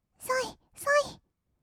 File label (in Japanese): "そいそい", kawaii voice; ASMR; 1200 kawaii voice!!; 音ゲー